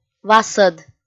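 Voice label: "васӧд", Komi-Zyrian, female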